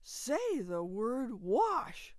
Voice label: wash happy, TESS Toronto emotional speech set